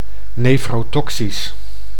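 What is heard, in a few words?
"nefrotoxisch", Dutch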